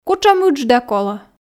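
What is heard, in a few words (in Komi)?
кутшӧм ыджда колӧ, Komi, female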